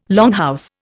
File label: "longhouse", Indian